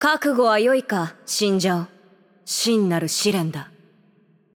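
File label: voice over, Japanese; Game Outro; bloom minigame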